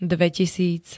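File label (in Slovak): "dvetisíc", Slovak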